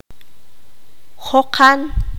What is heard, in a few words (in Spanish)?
"largo", Q'anjob'al